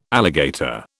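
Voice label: "alligator", British English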